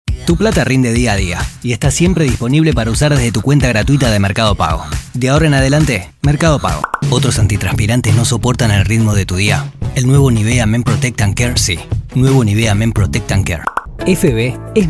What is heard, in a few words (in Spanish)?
rioplatense